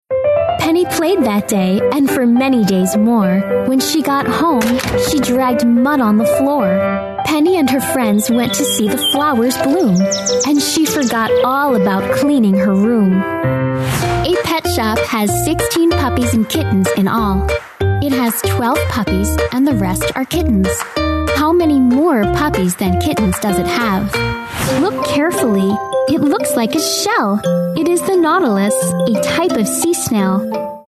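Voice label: English, US, female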